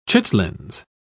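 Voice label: "chitlins", English, British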